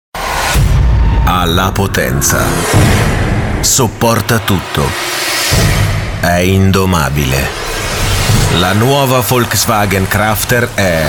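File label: Italian, male, commercial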